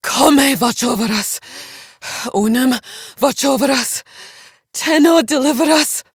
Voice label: Villagers Fem en